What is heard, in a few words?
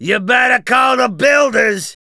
Male, English; gangsters